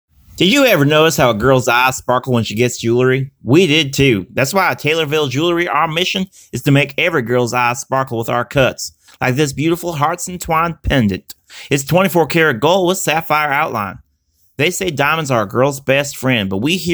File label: Commercial